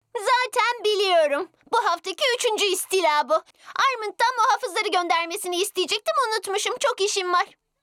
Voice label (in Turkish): cocuk ornek